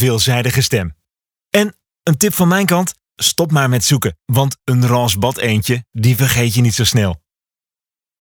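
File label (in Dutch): voice-over zakelijk corporate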